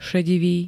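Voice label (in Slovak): "šedivý", Slovak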